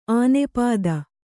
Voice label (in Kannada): "ಅನಾಪ್ತ" Kannada